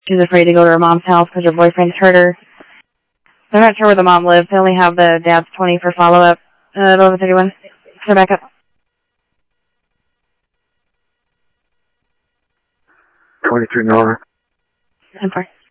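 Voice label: Phoenix police department, scanner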